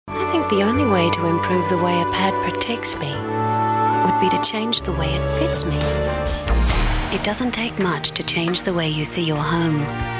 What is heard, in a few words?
soft